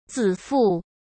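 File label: "自负", Mandarin Chinese; hsk; chinese-flashcards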